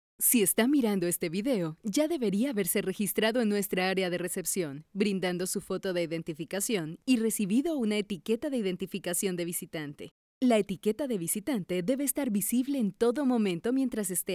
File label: Spanish Latin